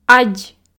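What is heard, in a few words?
"адз", Komi, female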